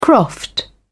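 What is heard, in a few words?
"croft", British English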